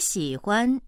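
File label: "xi3huan1", Mandarin Chinese, female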